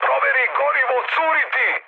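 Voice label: Serbian tank damaged mood med; War Thunder; speech srb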